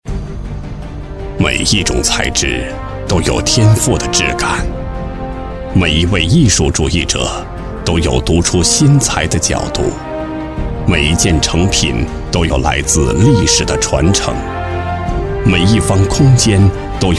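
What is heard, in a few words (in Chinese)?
男国大音-广告-儒雅大气; 广告东方红木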